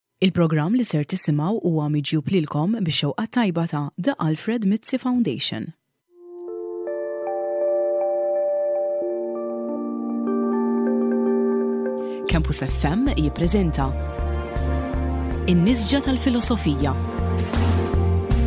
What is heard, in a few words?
announcement; nisga